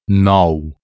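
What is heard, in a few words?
"nou", Catalan, male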